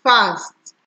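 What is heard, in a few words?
"fast", Nigerian Pidgin, female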